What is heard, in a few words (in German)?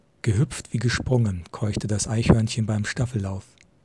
Aufnahme AUX Mic; OneOdio